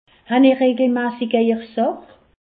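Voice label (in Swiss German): "sach", Alsatian; medecine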